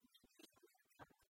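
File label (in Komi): ми гижим диктант, Komi, female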